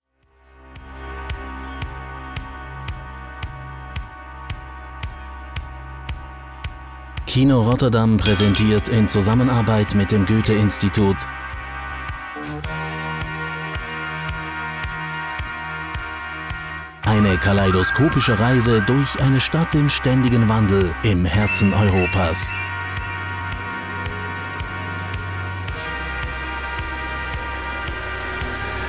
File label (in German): trailer